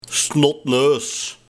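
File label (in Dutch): "Snotneus", dialect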